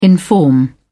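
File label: "inform", British English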